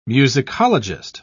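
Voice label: "musicologist", British English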